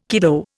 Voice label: "kiddo", Indian